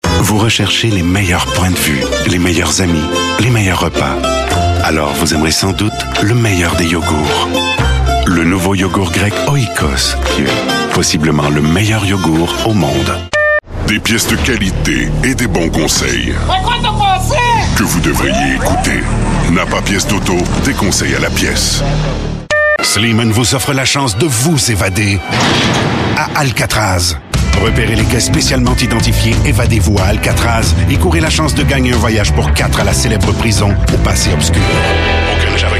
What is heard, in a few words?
french voice over male commercial